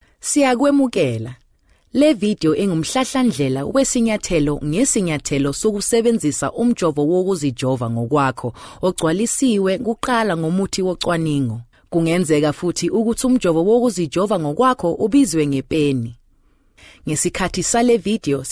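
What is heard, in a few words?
Zulu, voice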